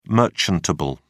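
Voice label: "merchantable", British English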